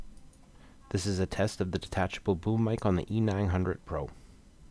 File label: Mic Test